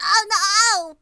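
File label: "ohno", woman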